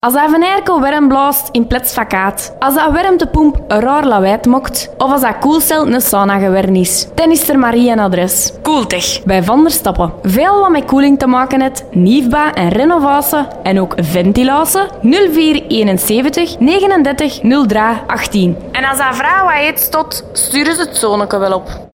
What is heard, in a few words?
reklam; cooltech